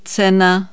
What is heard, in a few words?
price, Czech